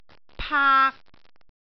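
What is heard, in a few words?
"paak3", Cantonese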